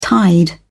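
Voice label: "tide", British English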